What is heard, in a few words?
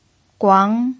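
"guang" second tone, pinyin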